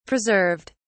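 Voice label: "preserved", British English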